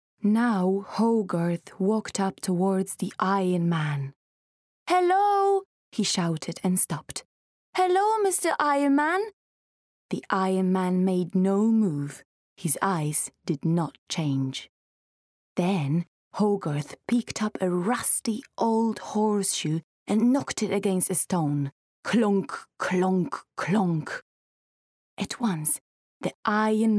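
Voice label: narration english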